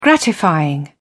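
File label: "gratifying", British English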